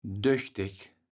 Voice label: "döchteg", kaevels platt

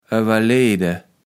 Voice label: "owerleede", Platt

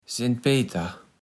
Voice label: "Sent Peter", platt

